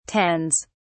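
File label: "tends", British English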